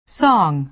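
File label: "song", American English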